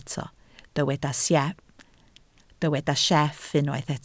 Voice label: "sieff", Welsh

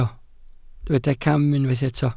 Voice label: "cam", Welsh

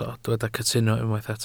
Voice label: "cytuno", Welsh

